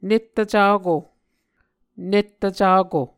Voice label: "nettvcako", Muscogee

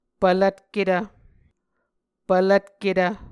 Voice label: "pvlvtketv", Muscogee

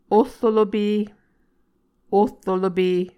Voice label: "ohrolope", Muscogee

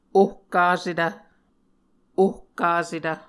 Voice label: "ohkasetv", Muscogee

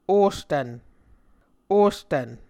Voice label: "ostvn", Muscogee

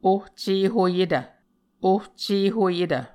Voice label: "ohcehoyetv", Muscogee

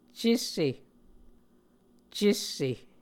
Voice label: "cesse", muscogee

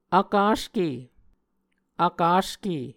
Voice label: "vkaske", Muscogee

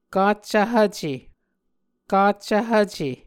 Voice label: "kaccv hvce", Muscogee; Mvskoke Words with Repeated Consonants; Martin, Jack B., and Margaret McKane Mauldin. 2023. A Dictionary of Creek/Muskogee

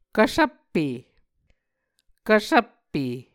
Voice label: "kvsvppe", Muscogee

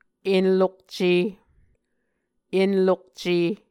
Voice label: "enlokce", Muscogee

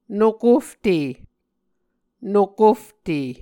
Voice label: "nokofte", Muscogee

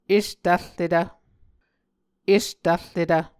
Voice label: "estvrretv", Muscogee

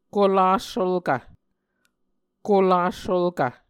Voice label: "kolas sulkv", Muscogee

